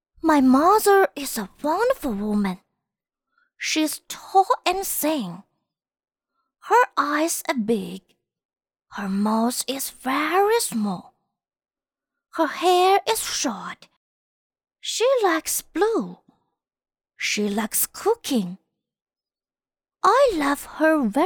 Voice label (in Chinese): 女 英 男童